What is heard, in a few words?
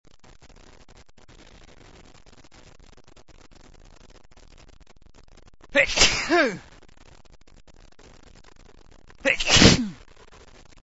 loud, male